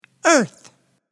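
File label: "earth", English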